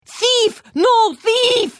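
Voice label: Thief, Khajiit female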